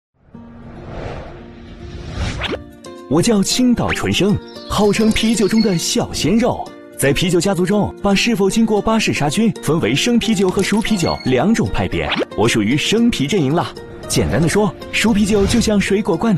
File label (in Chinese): 男国 宣传片 病毒; 青岛纯生